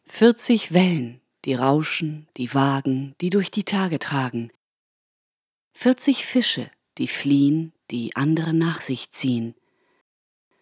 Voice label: Poetry Text